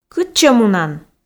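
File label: "кытчӧ мунан", Komi, female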